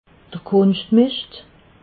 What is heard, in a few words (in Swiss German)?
"kunschtmischt", alsace